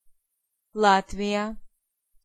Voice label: "Latvia", Pronunciation